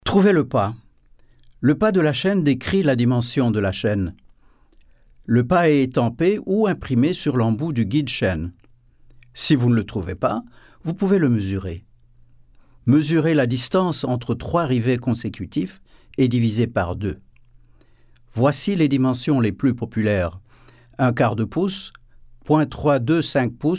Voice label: French; Oregon Tool